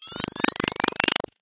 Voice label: egg impatient